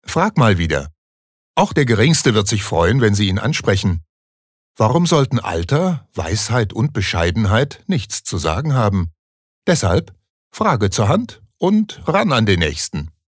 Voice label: Werbung Freundlich

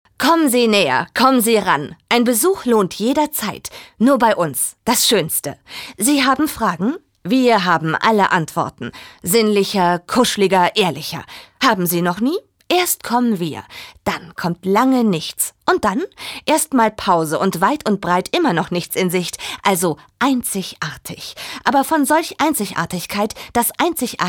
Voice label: Schauspiel Lebendig

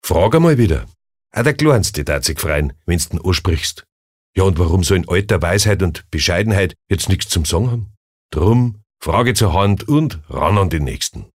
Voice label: Dialekt Bayerisch

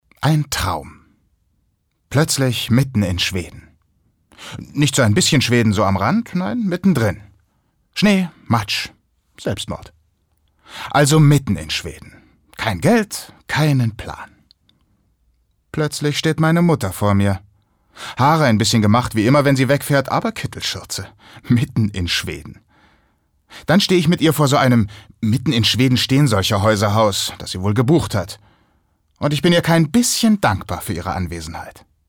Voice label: Schauspiel Neutral

